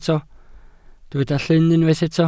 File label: "llyn", Welsh